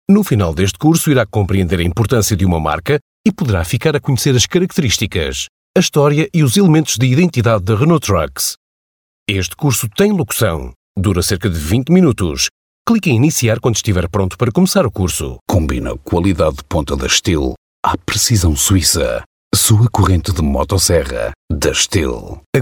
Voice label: Portuguese